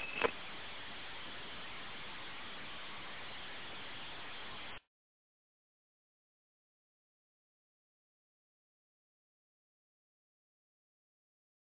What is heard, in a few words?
dispatch shots call